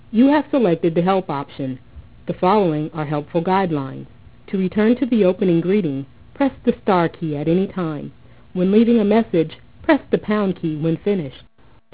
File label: ANNOUNCE; Modem; SuperVoice